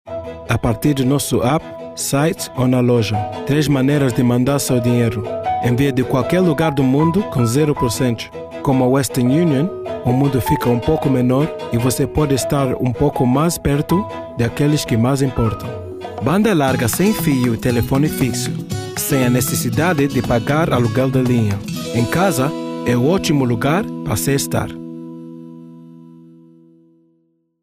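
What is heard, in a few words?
Commercial Portuguese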